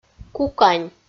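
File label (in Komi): "кукань", Komi-Zyrian, female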